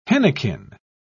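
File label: "henequen", British English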